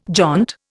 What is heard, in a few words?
"jaunt", Indian